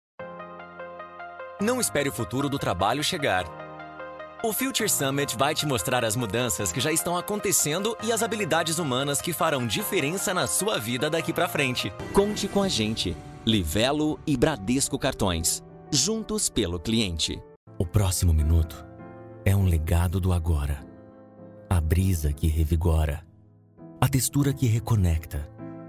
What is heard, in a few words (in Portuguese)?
Institucionais